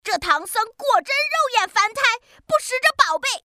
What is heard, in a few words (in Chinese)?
仙童角色